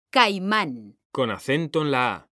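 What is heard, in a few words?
"caimán", Spanish, female; mekanta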